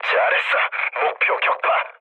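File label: Korean bomb success mood low, speech; War Thunder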